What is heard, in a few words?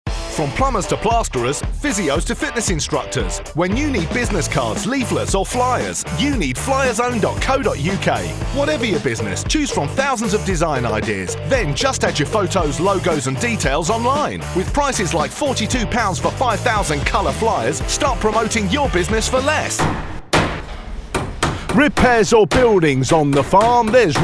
London Accent